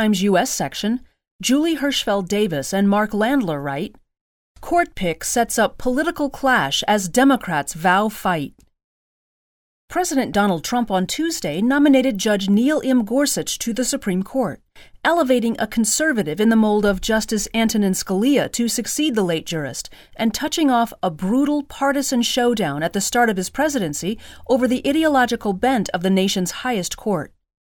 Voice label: news reader